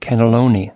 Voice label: "cannelloni", us

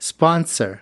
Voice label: "sponsor", us

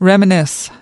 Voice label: "reminisce", us

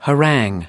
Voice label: "harangue", US

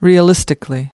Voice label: "realistically", us

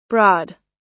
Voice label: "broad" US

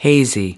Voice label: "hazy", us

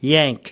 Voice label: "yank", us

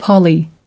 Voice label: "poly", us

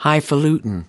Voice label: "hifalutin", us

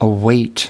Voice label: "await", us

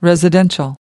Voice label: "residential", us

